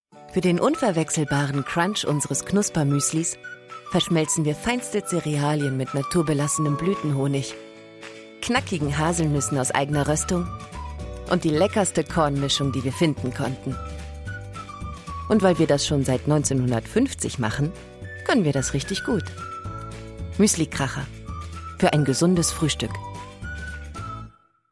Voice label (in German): Werbung Mueslikracher